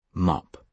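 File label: "mop", British English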